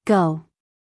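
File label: "go", American English, female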